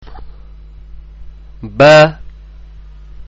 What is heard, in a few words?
Baa, Arabic Letter Sounds